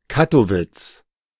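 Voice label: "Kattowitz", German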